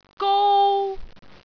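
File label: "gou1", Cantonese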